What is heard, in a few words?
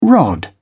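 "rod", British English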